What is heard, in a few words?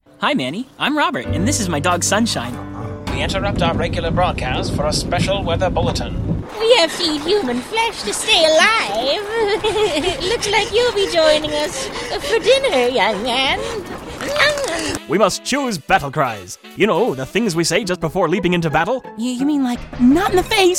Animation Demo